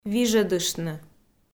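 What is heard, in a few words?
"вижӧдыштны", Komi, female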